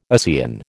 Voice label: "ASEAN", American